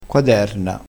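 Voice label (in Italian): "quaderna", vocabolario dialettale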